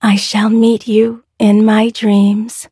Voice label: levelup, en; cookierun